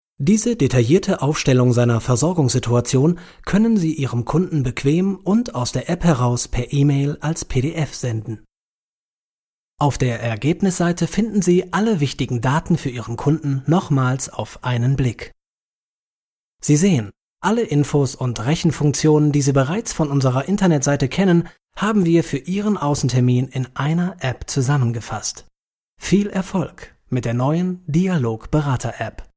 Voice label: e-learning

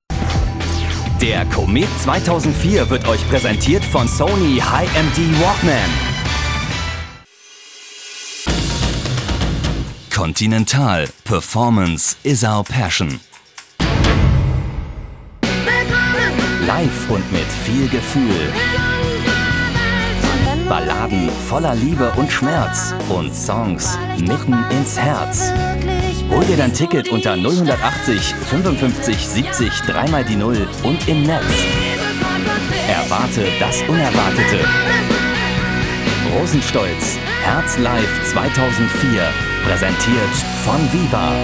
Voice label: Air Promo diverse

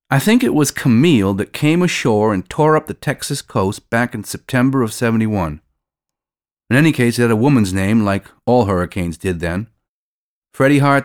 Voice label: narrative; hurricane camille

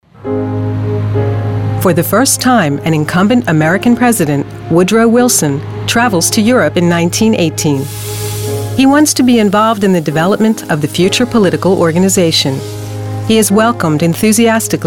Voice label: narration medley

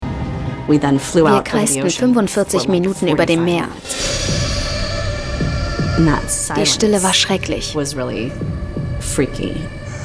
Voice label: voiceover; horrorfluege

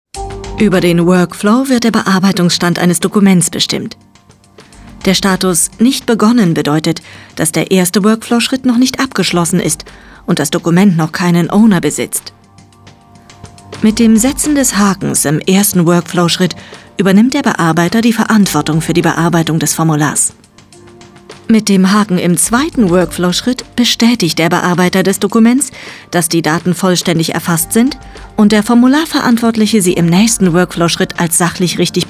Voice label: elearning

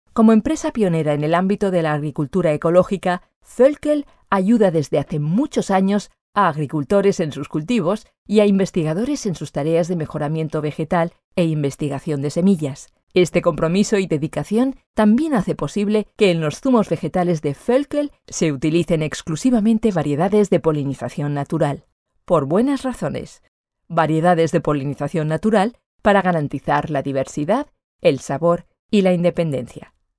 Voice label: es female corporate; voelkel

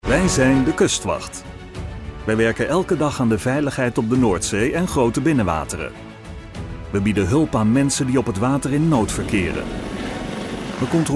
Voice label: nl vo image-film coastguard with music

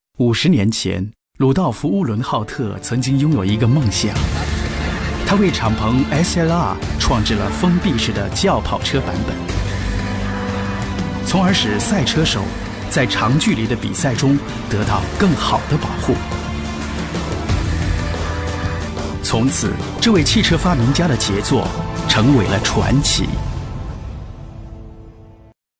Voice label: voicedemo imagefilm chinese; mercedesbenz

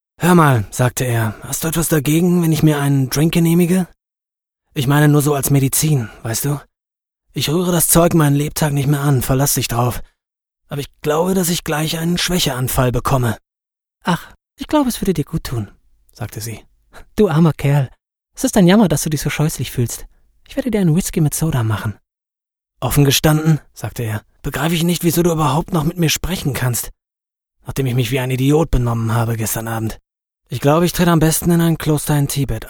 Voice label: dialog

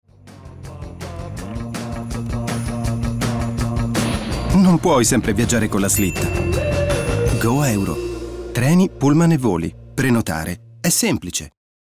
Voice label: spot; goeuro

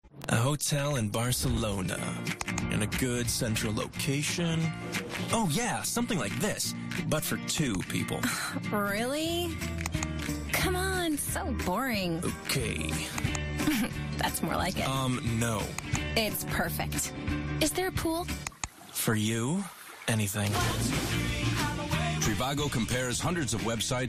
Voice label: tv commercial; trivago; ispottv

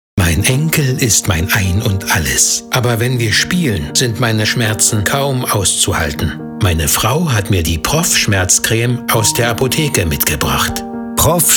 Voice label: tv spot; Muttersprache Deutsch; proff

